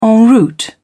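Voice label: "en route", British English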